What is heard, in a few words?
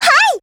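AGREE, Japanese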